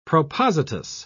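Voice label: "propositus", British English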